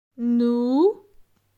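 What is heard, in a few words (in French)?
"Nous", French; grammaire; adjectifs possessifs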